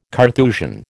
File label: "Carthusian", American